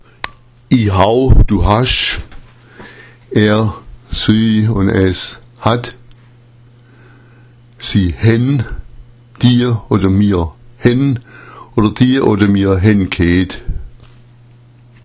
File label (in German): haben, schwaebisch